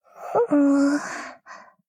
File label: shy